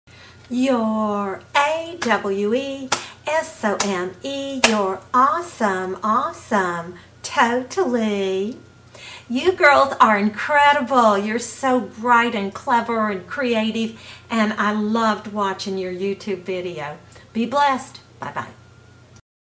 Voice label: voice message surprise